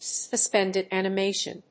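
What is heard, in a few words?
"suspended animation", English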